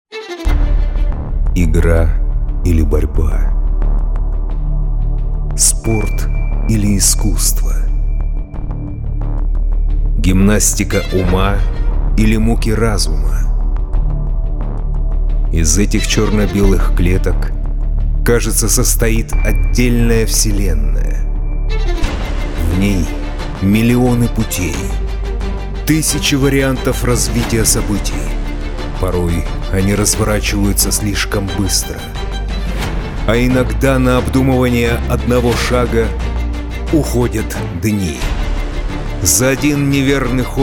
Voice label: russian movie trailers